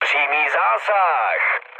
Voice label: bomb success, speech cze, mood med; War Thunder

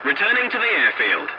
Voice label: voice message return to base, english; War Thunder

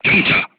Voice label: voice message short stop, korean, crew tanks

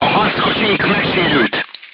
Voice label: voice message player tank hit, hungarian

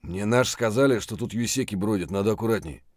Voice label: mutter, voice; Escape from Tarkov

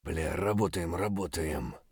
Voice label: mutter; Escape from Tarkov

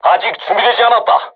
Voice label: voice message art reloading, korean, crew tanks

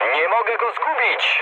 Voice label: aircraft damaged mood med, speech pol

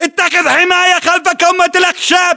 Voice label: order cover lumber pile, battle chatter, english

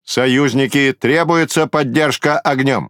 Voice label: Ru Speech Priority Target; World Of Warships

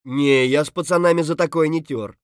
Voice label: scav mutter, voice; Escape from Tarkov; scav3

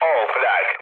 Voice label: damaged aa, mood low, speech tur; War Thunder

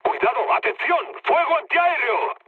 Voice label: damaged aa, mood med, speech; War Thunder

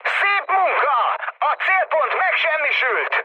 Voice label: bomb success, mood high, speech hun; War Thunder

